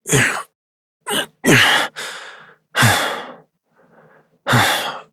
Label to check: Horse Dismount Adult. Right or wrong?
Right